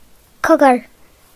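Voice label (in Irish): "whisper", Irish; gcompris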